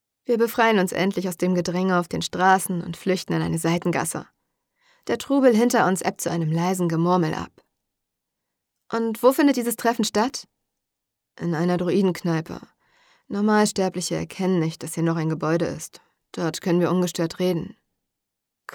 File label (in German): Horprobe Fantasy, Hörbücher; Sprecherin für Hörbuch & Voice Over